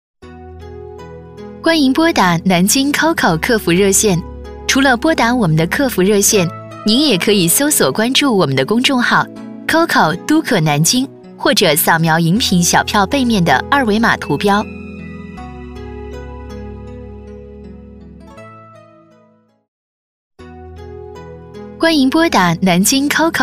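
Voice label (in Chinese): 女S112 国语 女声 电话彩铃 甜美清脆 积极向上 神秘性感 调性走心 亲切甜美; 南京COCO电话彩铃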